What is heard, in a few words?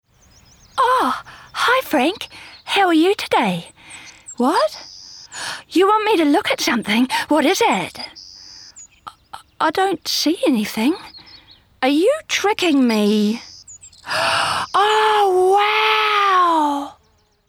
Animation, en-US; BigMouthVoices